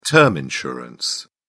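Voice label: "term insurance", British English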